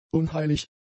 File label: "unheilig", German; adjectives